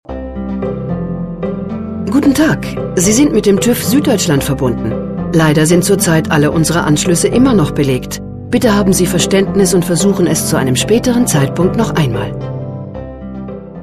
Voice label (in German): ansage bei besetzt